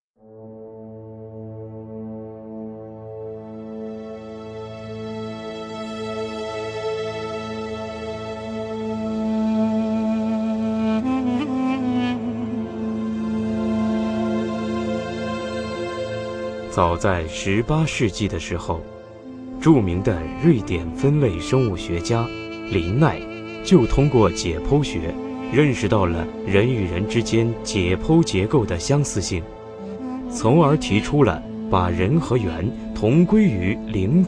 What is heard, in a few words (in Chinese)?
男66号纪录片; 中国通史